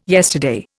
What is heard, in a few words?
"yesterday", Indian